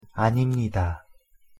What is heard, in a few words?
"아닙니다", Korean; pronunciation